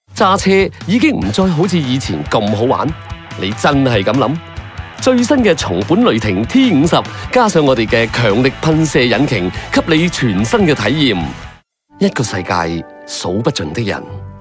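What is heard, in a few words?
Cantonese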